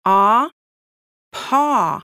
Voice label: babys sound audio PAW